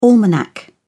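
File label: "almanac", British English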